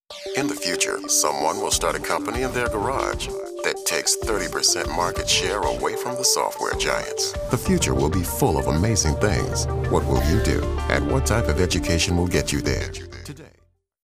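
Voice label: anti announcer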